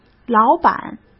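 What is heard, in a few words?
"老板", Mandarin Chinese; chinese flashcards; hsk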